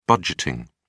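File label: "budgeting", English, British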